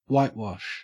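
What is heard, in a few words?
"whitewash" English, Australian; pronunciations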